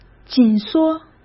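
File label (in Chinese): "紧缩", Mandarin Chinese; hsk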